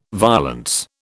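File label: "violence", British English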